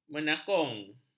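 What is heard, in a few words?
"menakung", Malay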